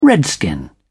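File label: "redskin", English, British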